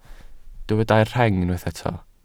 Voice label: "rheng", welsh

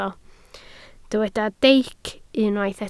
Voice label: "deic", Welsh

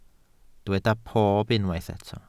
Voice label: "pob", Welsh

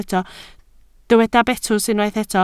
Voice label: "betws", Welsh

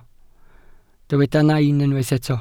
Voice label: "nain", Welsh

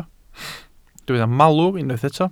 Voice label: "malwr", Welsh

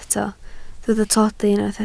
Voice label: "tlodi", Welsh

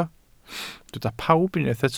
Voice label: "pawb", Welsh

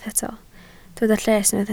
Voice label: "lles", Welsh

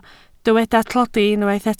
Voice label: "tlodi", Welsh

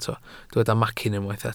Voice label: "macyn", welsh

